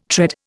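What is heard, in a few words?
"tread", Indian English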